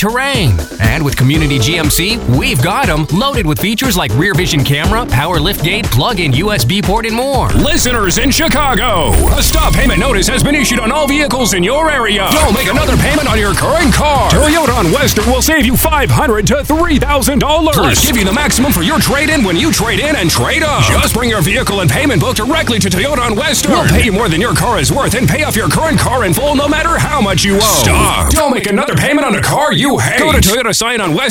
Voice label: High Energy